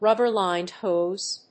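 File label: "rubber-lined hose", English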